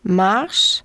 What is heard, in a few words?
french walk; samsung YP-U5; Audio User Interface